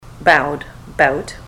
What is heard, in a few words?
bowed bout, ontario; mouth set